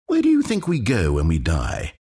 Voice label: announcer count battle; dlc stanleyparable